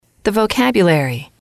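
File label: "vocabulary", English, female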